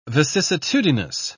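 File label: "vicissitudinous", British English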